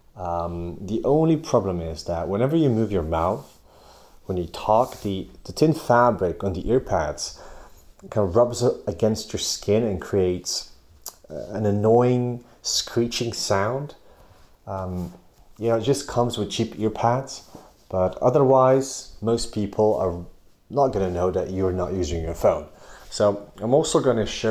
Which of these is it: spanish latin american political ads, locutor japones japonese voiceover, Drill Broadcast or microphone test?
microphone test